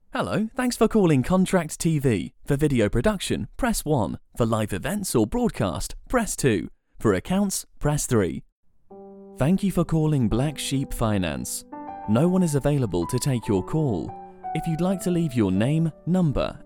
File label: IVR VOICEMAIL